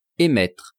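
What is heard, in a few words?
"emettre", French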